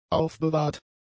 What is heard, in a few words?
"aufbewahrt", German; adjectives